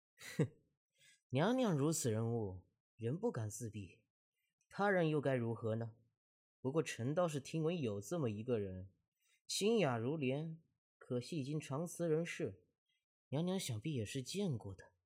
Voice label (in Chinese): 青年音